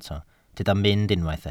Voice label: "mynd", Welsh

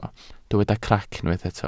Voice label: "crac", welsh

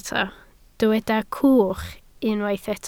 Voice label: "cwch", Welsh

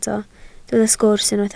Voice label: "sgwrs", Welsh

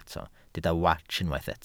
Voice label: "wats", Welsh

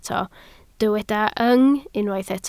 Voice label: "yng", Welsh

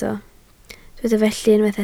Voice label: "felly", Welsh

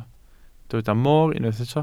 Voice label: "mor", Welsh

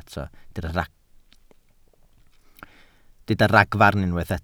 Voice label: "ragfarn", Welsh

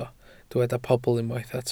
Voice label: "pobl", Welsh

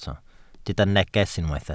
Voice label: "neges", Welsh

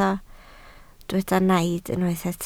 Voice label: "naid", Welsh

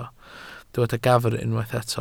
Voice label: "gafr", Welsh

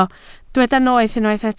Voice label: "noeth", Welsh

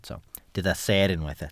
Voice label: "seer", Welsh

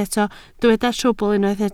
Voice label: "trwbl", Welsh

